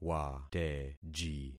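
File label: "wateji", concatenated syllables; Affixing experiment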